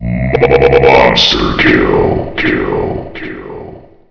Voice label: monster kill, announcer